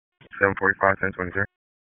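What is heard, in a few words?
Sheriff's Office Dispatch; WSO DISP 1; Wake; p25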